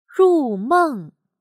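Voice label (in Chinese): "入梦" Mandarin Chinese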